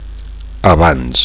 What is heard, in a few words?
"abans", Catalan; before; beforehand